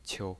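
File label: "qiu" neutral tone, pinyin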